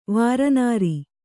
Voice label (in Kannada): "ವಾರನಾರಿ", Kannada